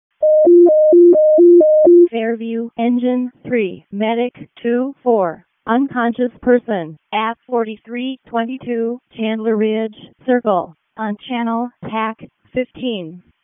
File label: WC EMS ALERT, p25; Wake